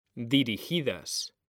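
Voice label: "DIRIGIDAS", Spanish; mekanta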